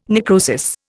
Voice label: "necrosis", Indian

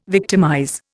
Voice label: "victimize", Indian

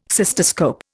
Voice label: "cystoscope", Indian

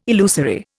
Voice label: "illusory", Indian

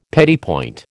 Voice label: "petit point", American

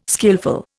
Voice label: "skillful", Indian

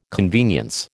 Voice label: "convenience", American

